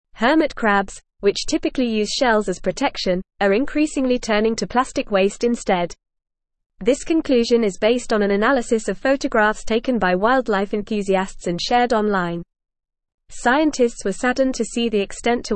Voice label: English Newsroom Advanced FAST Reading; Hermit Crabs Worldwide Using Plastic Waste as Shells